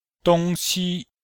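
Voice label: "dong1xi1", Chinese; Cours de Chinois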